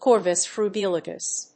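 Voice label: "corvus frugilegus", English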